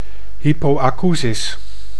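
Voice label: "hypoacousis", Dutch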